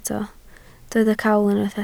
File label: "cawl", Welsh